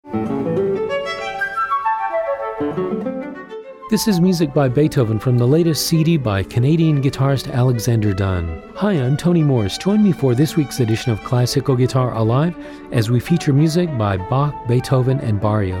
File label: Interview promo